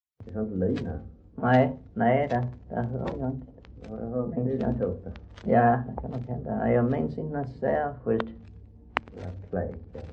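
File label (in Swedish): Röke Skåne, Svenska sagor dialekter; Folkminnen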